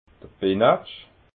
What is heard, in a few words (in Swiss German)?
"benatsch", alsace